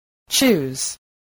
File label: "choose", English, voice